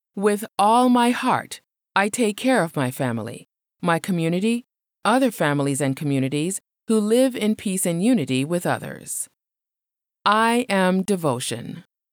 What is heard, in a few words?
I Am Devotion English Female